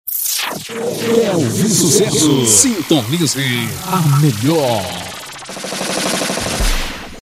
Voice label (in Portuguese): efeito com fundo voz; que ouvir sucesso sintonize a melhor